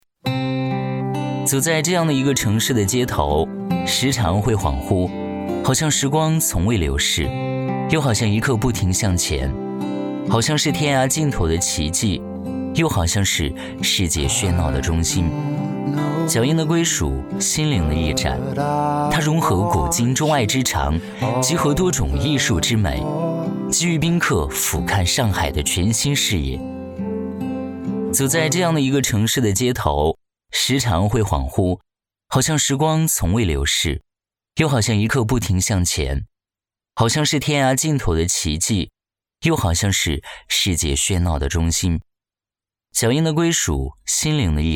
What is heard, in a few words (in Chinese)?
男 独白; 走在这样的一个城市的街头